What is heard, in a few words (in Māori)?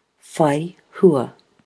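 "whai hua", Māori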